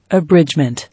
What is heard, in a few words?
"Abridgement", English